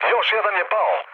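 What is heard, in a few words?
Serbian player killed enemy mood low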